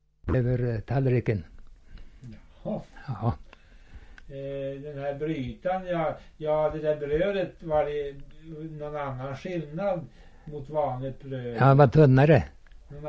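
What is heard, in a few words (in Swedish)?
Ullånger Ångermanland, dialekter; Folkminnen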